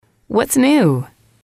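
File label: whats new, English, female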